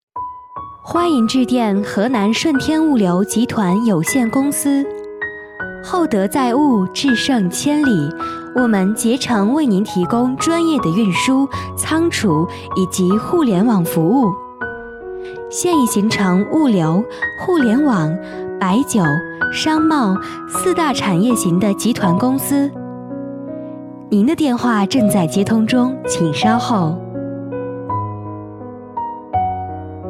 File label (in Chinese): 彩铃